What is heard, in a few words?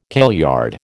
"kailyard", American